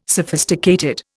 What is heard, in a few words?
"sophisticated", Indian